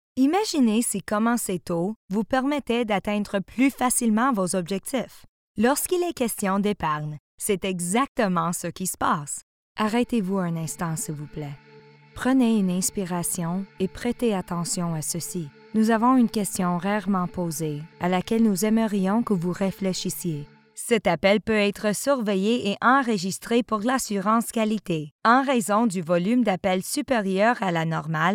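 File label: female commercial French Canadian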